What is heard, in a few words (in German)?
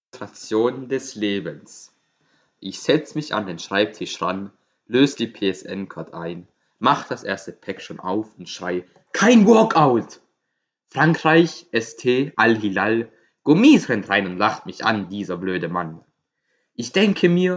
Slam text, Poetry Slam